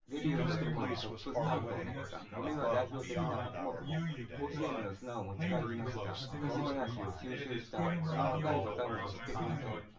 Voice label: male, synth conv, 250ms, 5cm; SiSEC08; SiSEC underdetermined